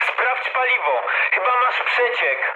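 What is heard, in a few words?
tank damaged mood med, speech Polish; War Thunder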